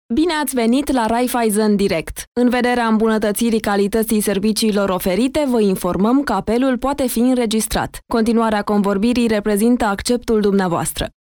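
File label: Female Romanian IVR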